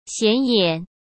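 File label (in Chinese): "显眼", Mandarin Chinese; chinese-flashcards; hsk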